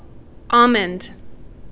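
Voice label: "almond", Canadian, female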